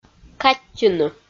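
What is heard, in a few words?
"каттьыны", Komi, female; kpv audio dict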